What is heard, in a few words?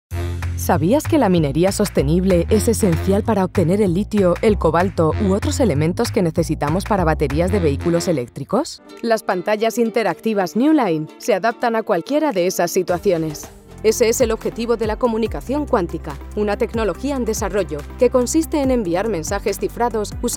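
Spanish, female, Corporate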